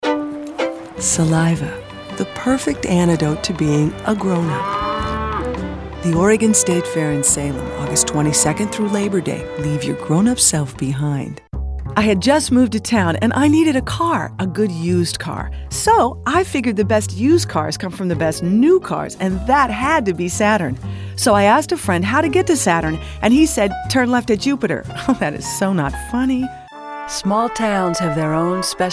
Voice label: Commercial Voiceover